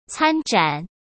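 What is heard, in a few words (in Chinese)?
"参展", Mandarin Chinese; chinese-flashcards; hsk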